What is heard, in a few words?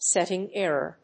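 "setting error", English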